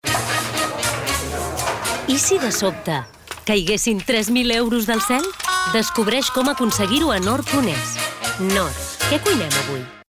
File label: female catalan food comercial; ideasonora